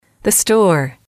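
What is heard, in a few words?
"the store", English, female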